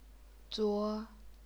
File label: "zuo1", chinese pinyin